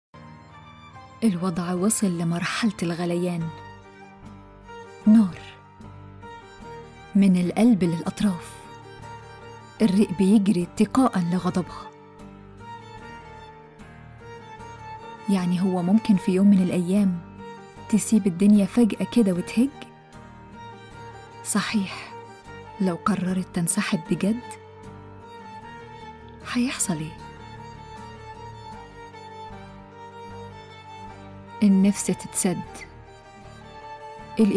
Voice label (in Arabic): إعلان; إعلان صلصة هارفست